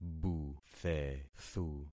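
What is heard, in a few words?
"buthethu", concatenated syllables; Affixing experiment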